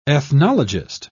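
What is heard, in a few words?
"ethnologist", British English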